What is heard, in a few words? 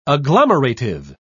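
"agglomerative", British English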